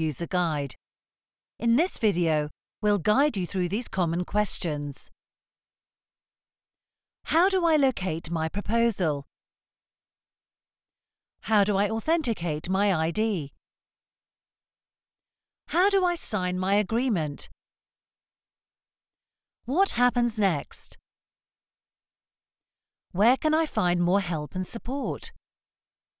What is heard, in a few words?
GETTING STARTED, British, Friendly, Natural Female Voice; NISSAN